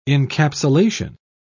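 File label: "encapsulation", British English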